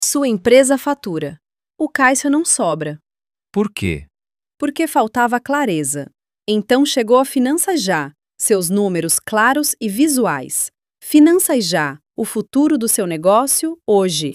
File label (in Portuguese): narração